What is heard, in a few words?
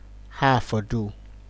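"hafodu", Nigerian Pidgin, male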